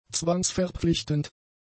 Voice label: "zwangsverpflichtend", German; adjectives

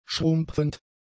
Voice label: "schrumpfend", German; adjectives

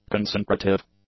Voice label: "concentrative", English; adjectives

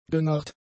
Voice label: "genarrt", German; adjectives

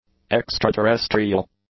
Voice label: "extraterrestrial", english; adjectives

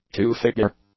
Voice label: "two-figure", English; adjectives

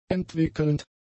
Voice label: "entwickelnd", German; adjectives

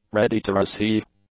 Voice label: "ready-to-receive", English; adjectives

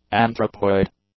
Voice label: "anthropoid", English; adjectives

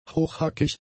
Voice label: "hochhackig", German; adjectives

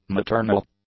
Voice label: "maternal", English; adjectives

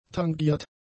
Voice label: "tangiert", German; adjectives

